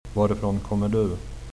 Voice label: where from, swedish